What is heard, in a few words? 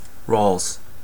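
"rales", English; pronunciations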